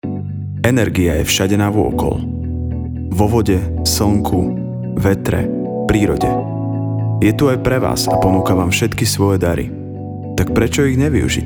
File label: voiceover